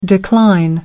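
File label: "decline", British English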